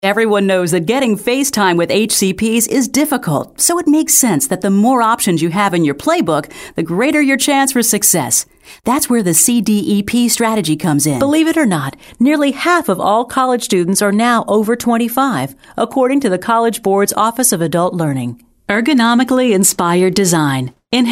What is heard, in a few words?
40s 50s Female Narration